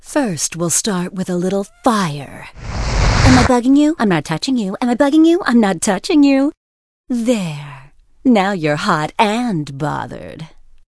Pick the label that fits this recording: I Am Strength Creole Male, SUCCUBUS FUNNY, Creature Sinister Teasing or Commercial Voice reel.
SUCCUBUS FUNNY